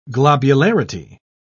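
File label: "globularity", English, British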